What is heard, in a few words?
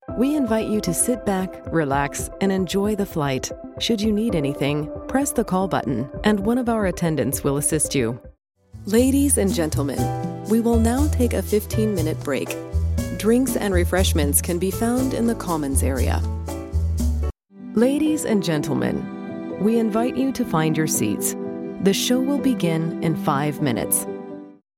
english canadian announcements; Ankündigungen